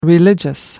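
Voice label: "religious", British English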